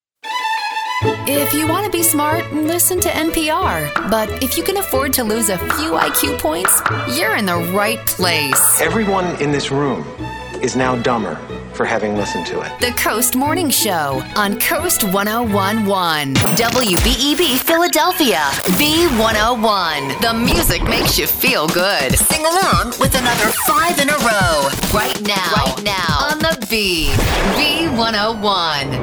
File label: HOT AC Imaging VO